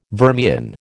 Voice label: "vermian", American

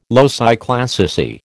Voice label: "loci classici", American

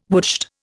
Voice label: "woodshed", Indian

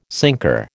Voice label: "sinker", American

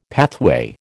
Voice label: "pathway", American

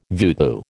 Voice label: "voodoo", American

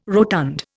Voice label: "rotund", Indian

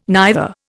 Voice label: "neither", Indian

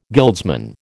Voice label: "guildsman", American

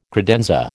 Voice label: "credenza", American

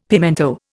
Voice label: "pimento", Indian; Dictionary

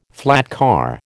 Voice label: "flatcar", American

